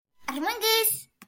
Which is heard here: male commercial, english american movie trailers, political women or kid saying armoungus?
kid saying armoungus